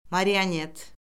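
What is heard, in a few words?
"marionet", Azerbaijani; Orfoqrafiya Lüğəti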